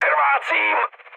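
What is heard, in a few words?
damaged pilot, Czech, mood med; War Thunder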